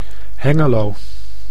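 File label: "Hengelo", Dutch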